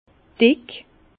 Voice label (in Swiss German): "dick", Bas Rhin; vin; épais